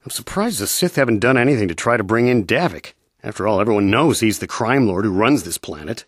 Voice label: male